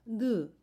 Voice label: "you", jeju